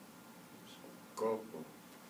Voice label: "Cup", Kibembe